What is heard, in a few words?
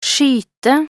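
"skyte", Norwegian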